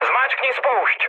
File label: attack position, speech cze, mood med